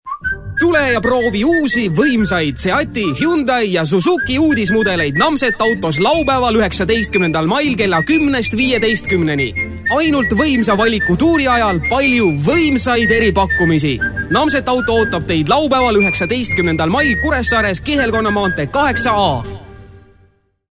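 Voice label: estonian male commercial; voice demos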